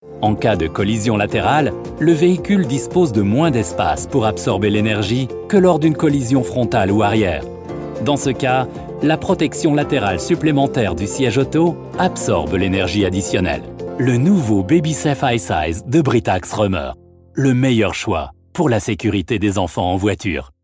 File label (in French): homme; RÖMER